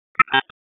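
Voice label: Raleigh Police North District Tactical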